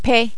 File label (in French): "p", French